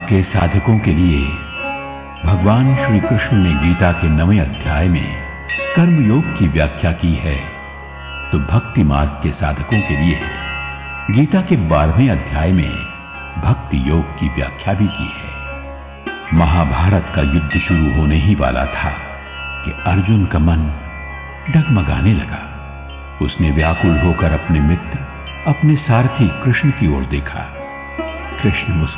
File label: Commentary Gita Chant